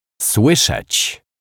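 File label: "slyszec", Polish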